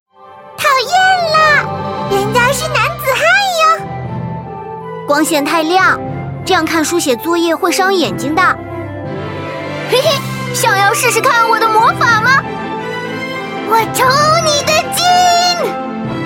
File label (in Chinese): luoli zhengtai